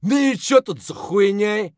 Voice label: mutter; Escape from Tarkov